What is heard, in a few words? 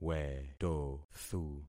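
"wetothu", concatenated syllables; Affixing experiment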